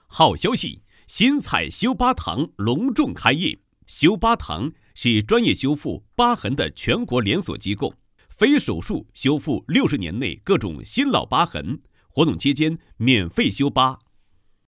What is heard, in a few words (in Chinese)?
好消息 开业活动配音; 新蔡修疤堂